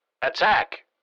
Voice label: Military radio voice command attack